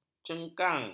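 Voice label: "cengkang", Malay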